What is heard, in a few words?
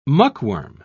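"muckworm", British English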